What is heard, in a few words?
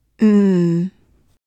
alphasounds n